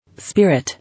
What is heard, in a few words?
"Spirit", English